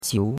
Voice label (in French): "qiu2", chinois; Cours-de-Chinois